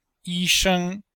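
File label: "yi1 sheng1", Chinese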